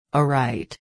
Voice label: "awright", reino unido; girias